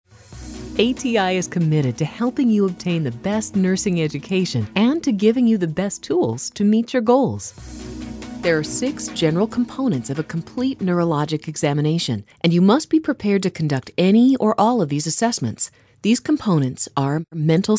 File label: Medical Narration